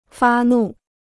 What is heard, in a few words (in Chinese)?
"发怒", Chinese